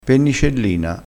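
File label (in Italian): "pennicellina", dialettale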